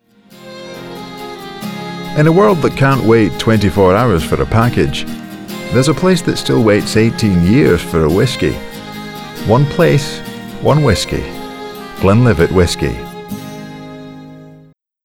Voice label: Scottish whiskey commercial